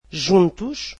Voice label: portuguese together

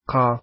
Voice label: "qaa", Arabic alphabet

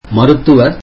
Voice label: tamil doctor

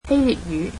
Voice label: "Greek", cantonese

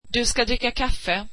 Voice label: you will drink coffee, swedish

